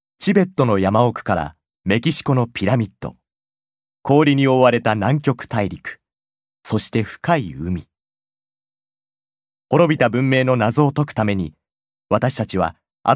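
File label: Japanese, male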